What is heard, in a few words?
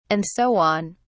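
"and so on", English, British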